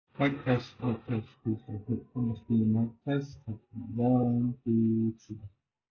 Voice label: UGREEN HiTune T3 Noisy